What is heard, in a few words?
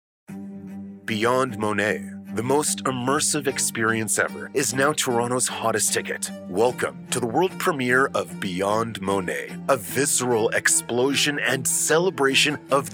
Warm; Monet